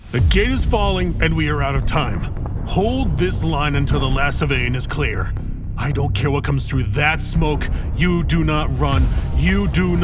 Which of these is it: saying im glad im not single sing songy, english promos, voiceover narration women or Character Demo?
Character Demo